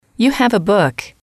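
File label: "you have a book", English, female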